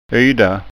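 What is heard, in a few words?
"yda", Scanian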